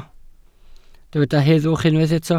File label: "heddwch", Welsh